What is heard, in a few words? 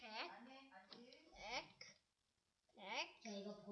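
"pick", spoken word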